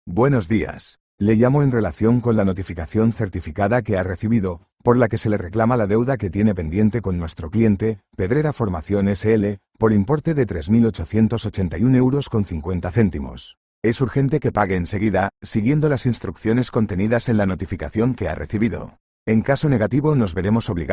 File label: audio call